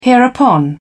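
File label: "hereupon", British English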